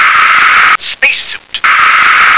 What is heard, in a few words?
"spacesuit", English